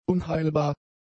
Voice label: "unheilbar", German; adjectives